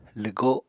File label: "lwgo", apatani